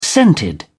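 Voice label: "scented", British English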